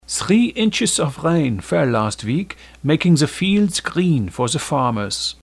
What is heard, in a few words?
german